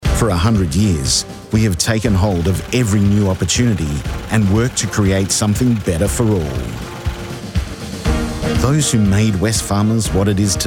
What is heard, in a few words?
CORPORATE